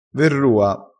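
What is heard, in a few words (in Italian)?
"verrua", dialettale, crespinese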